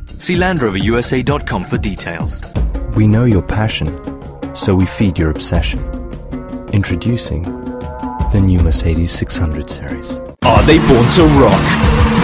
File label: British Commercial